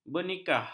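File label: "bernikah", Malay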